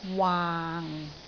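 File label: "waang6", Cantonese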